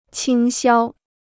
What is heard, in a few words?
"倾销", Chinese